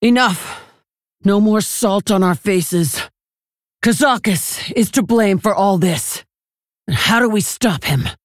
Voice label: Female Orc